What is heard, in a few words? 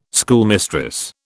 "schoolmistress", British